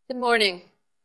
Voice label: VoiceActing es F